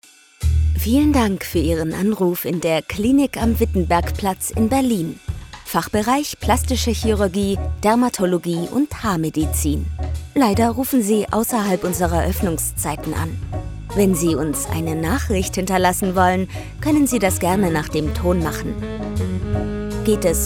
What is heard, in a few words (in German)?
Telefonansage D E F